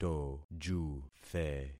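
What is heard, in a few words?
"tojuthe", concatenated syllables; Affixing experiment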